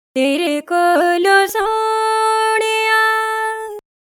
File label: DI Pent, pitch correction for vocal effects